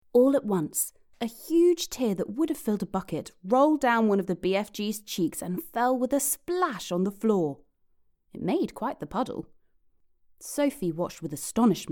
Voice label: Character